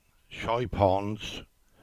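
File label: "Chypons", English; place-names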